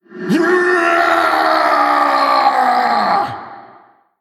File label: Male Orc Death